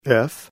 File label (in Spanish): La letra F en inglés